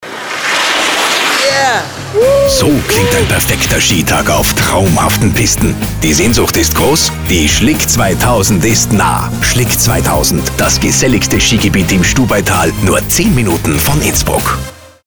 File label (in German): Radiospot; so klingt ein perfekter Skitag; Schlick 2000